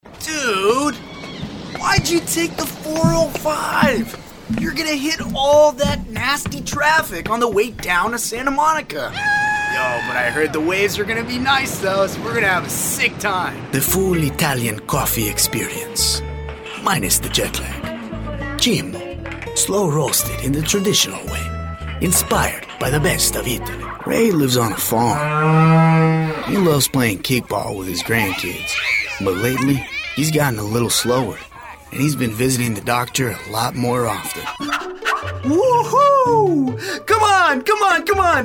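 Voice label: English US M Character, male, American; voice over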